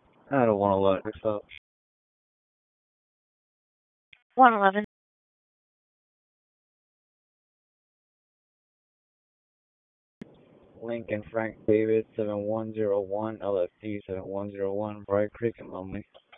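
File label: Police Southwest District Dispatch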